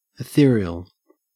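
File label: "ethereal", Australian English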